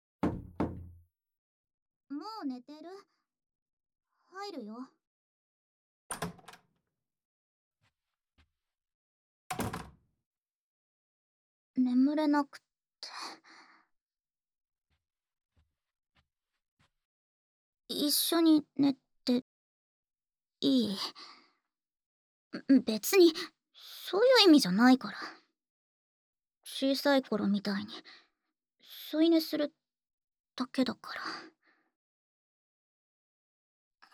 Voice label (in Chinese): 陪睡, ASMR; 娇了一下的陪睡